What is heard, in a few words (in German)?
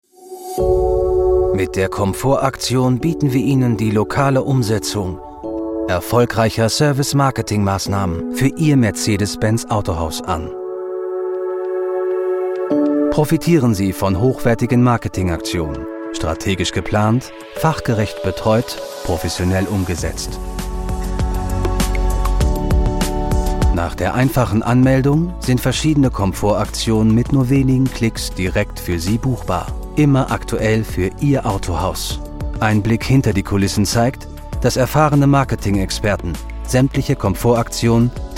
Imagefilm; MERCEDES